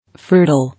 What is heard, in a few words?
"Fertile", English